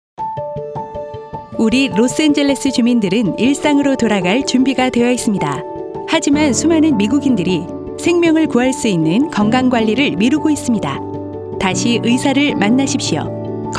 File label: Korean Radio